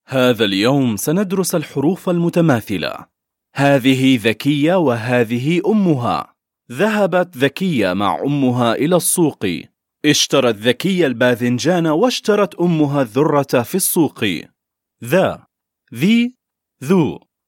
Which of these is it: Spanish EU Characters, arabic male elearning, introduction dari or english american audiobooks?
arabic male elearning